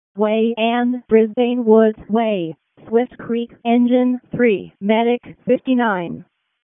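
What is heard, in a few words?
Fire Department Alert, p25; wake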